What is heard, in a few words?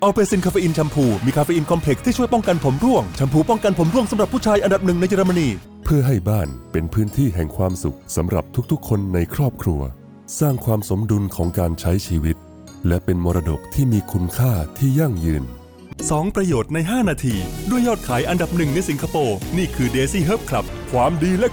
Commercials